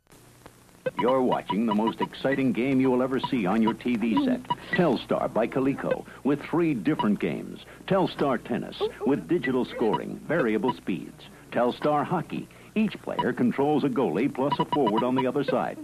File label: Commercial; Coleco Telstar 1976